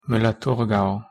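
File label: pronunciation german müller thurgau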